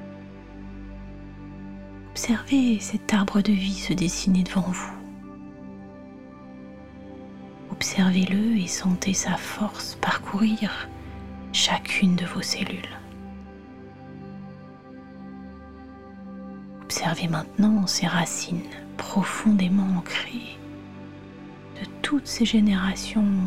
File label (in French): seance avec musique